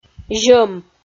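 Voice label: "зьӧм", Komi-Zyrian, female; audio dict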